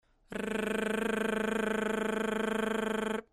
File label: "R", VOX